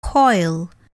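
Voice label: "coil", English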